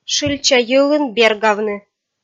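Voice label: "шыльча йылын бергавны", Komi, female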